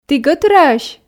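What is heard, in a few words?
"ті гӧтыраӧсь", Komi, female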